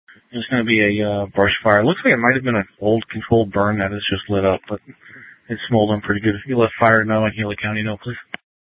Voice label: Sheriff, Maricopa County P25